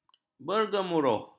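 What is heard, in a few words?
"bergemuruh", Malay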